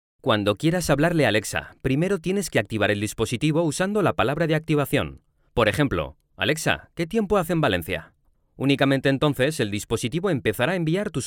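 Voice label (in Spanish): e-learning, Spain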